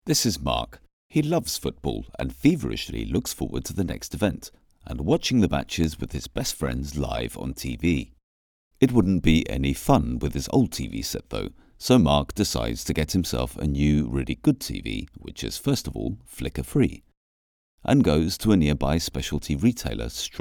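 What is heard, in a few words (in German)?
British Werbung, englisch; sprecher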